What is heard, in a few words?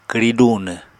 "kwri dunw", Apatani